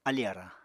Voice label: "alì arañ", Apatani